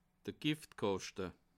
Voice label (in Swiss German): "giftkaschte", alsace